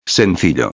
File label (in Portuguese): "sencillo", espanhol